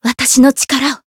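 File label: heal, Japanese; sophia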